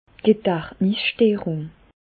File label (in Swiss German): "gedachtnisssterung", Bas Rhin; medecine; troubles cognitifs